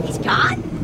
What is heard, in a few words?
grunt; h3dialogue; staten